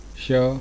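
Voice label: "sha", consonants of the ĐevaNāgarī script; V'yanjan/s (Consonants)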